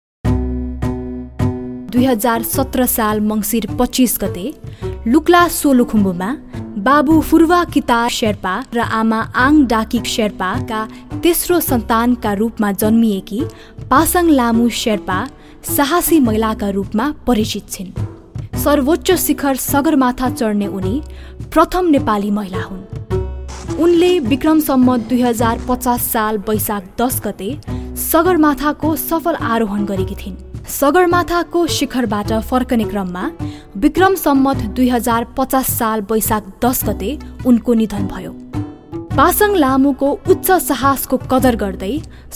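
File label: Nepali, General; GoLocalise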